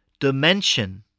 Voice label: "dimension", english spelling

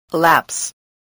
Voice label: "elapse", English

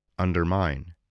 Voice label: "undermine", English spelling voice

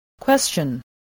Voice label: "question", english

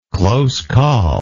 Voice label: "close call", English

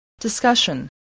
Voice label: "discussion", english spelling

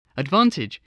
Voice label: "advantage", English voice

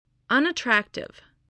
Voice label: "unattractive", English spelling voice

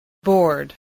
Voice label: "board", spelling

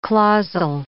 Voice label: "clausal", English

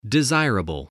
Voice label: "desirable", English

